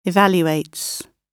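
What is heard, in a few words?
"evaluates", British English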